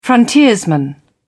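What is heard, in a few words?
"frontiersman", British English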